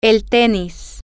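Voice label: tennis, spanish, female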